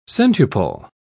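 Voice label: "centuple", British English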